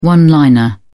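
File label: "one liner", English, British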